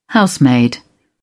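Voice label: "housemaid", British English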